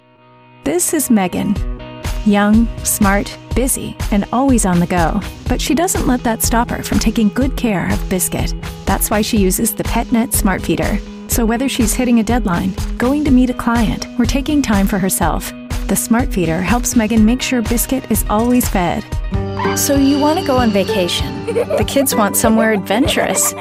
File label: corporate industrial